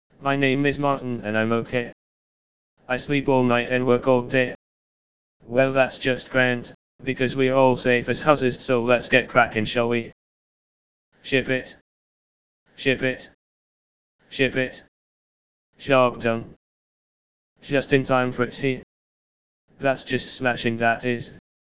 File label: fake voice